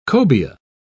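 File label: "cobia", British English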